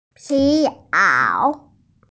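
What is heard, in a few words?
"pi2 ao3", Mandarin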